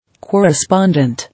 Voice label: "Correspondent", English